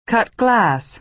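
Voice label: "cut glass", American English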